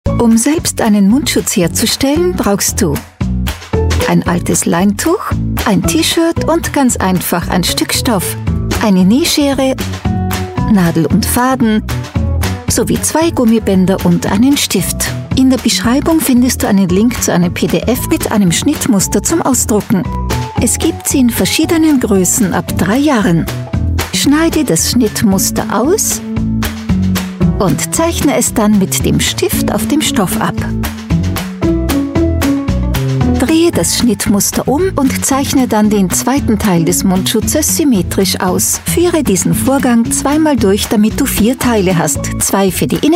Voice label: german voice over female elearning

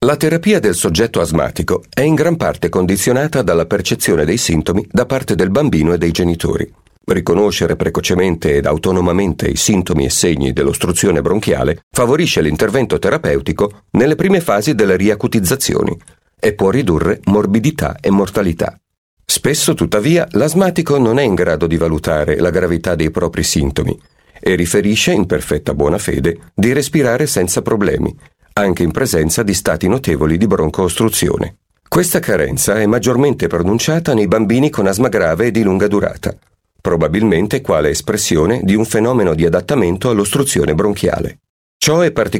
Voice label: italian voice over male documentary

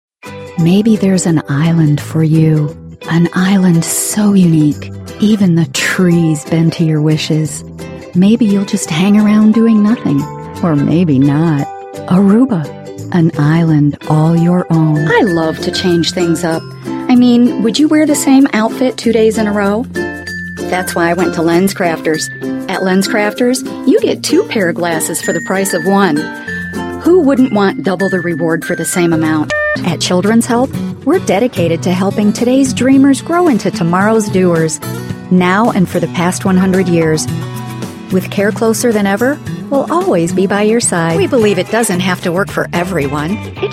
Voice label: english american voice over female commercial

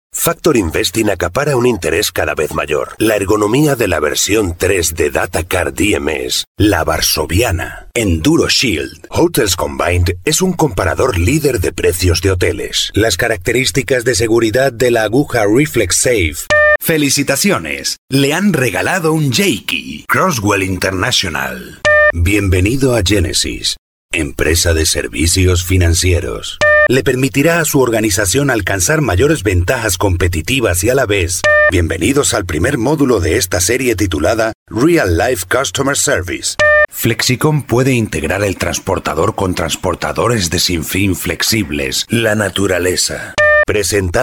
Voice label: spanish voice over male commercial

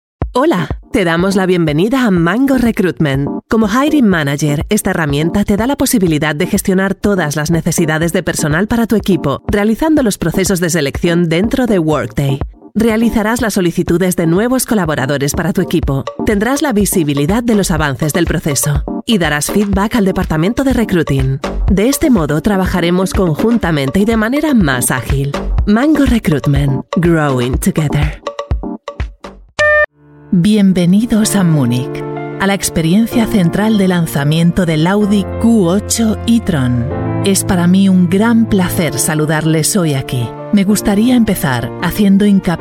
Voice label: spanish voice over female elearning

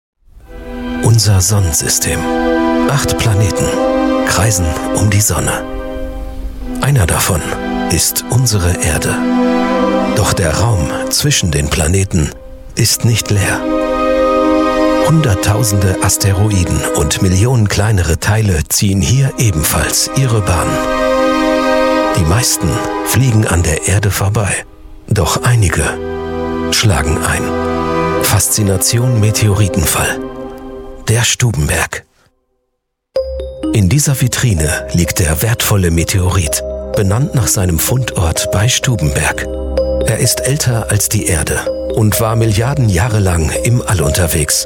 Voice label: german voice over male documentary

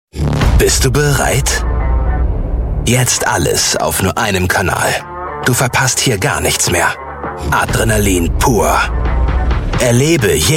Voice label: german voice over male commercial

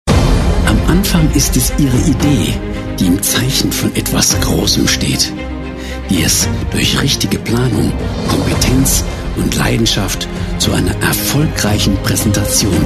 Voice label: german voice over male narrator

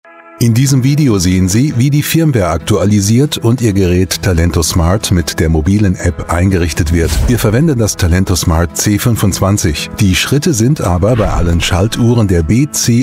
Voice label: german voice over male elearning

